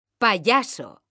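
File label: "payaso", Spanish; mekanta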